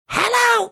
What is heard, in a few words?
mimicking moms funny hello